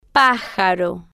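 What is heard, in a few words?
"pa-ja-ro", Spanish, female, slow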